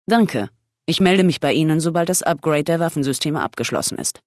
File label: Female adult